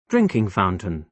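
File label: "drinking fountain", British English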